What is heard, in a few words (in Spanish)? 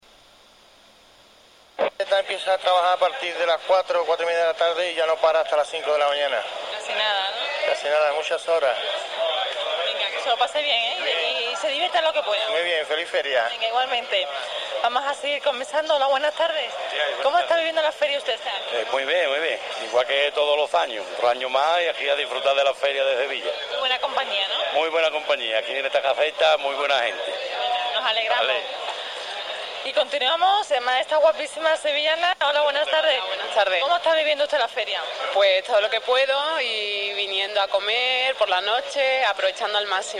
sevillano; Western Andalusian; urban